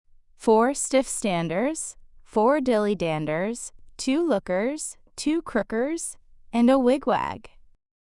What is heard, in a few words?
fem us; Four Stiff Standers